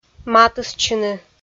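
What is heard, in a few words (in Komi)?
"матыстчыны", Komi, female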